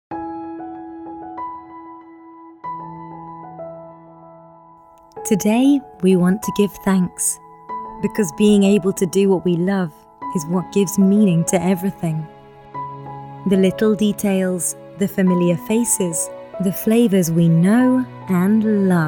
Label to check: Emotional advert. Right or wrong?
Right